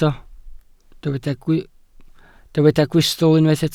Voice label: "gwystl", Welsh

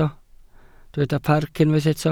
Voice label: "parc", Welsh

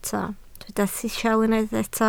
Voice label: "sisial", Welsh

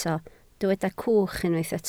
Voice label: "cwch", Welsh

